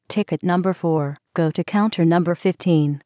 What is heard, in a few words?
announcement eng